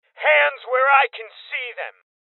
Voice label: Voices Order To Surrender Male Young; Rebel Cops

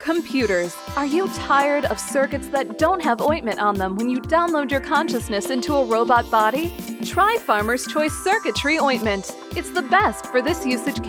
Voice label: Commercial Ointment; Stick It to the Man

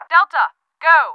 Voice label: English Go D

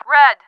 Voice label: English Red

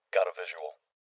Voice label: Voices Enemy Spotted Male

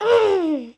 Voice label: boy escape; Wii

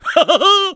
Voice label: clown taunt; Wii

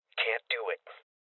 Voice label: Voices Refuse Male Young

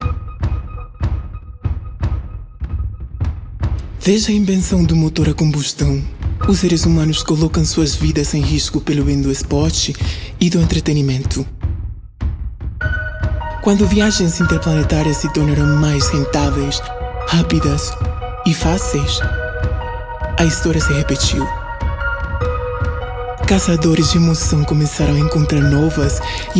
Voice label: Intro Vox Portuguese; quantum runners windows gamerip 2021

